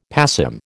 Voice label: "passim", American